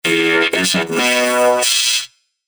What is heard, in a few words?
RoboVoice - Hier is het nieuws (singing Robot)